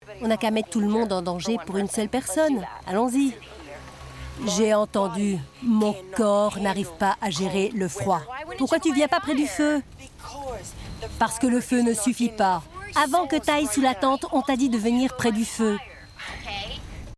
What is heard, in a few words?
Caveman, voice over